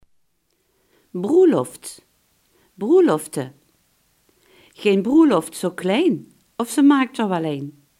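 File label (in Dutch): "bruiloft" Limburgish dialect word; bruiloft, broeloft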